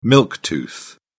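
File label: "milk tooth", British English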